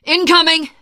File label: incoming, teengirl survivor voice; source engine; left 4 dead 2